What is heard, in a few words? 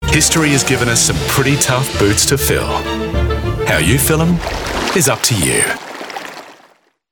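Australian English; Blundstone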